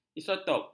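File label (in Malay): "isotop", Malay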